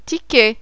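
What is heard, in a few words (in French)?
"TICKET", French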